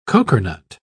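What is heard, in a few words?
"cokernut", British English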